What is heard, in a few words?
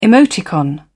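"emoticon", British English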